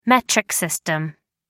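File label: "metric system", English, British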